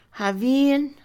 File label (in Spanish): antes de, Mixe, Mujer; INALI